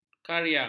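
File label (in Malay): "karya", Malay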